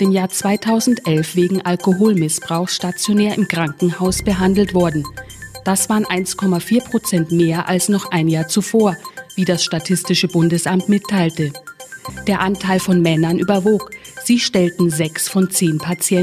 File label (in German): Nachrichten